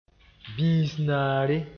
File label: "bĩis-naare", moore